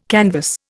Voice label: "canvass", Indian

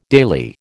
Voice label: "daily", American

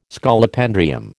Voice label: "Scolopendrium", American

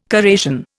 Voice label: "corrasion", Indian

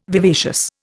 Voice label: "vivacious", Indian

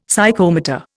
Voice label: "Psychomotor", Indian; Dictionary

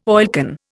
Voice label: "oilcan", Indian

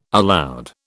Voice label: "aloud", British

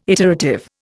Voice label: "iterative", Indian

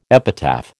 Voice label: "epitaph", American

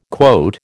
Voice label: "quote", American

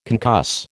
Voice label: "concuss", American

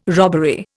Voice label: "robbery", Indian

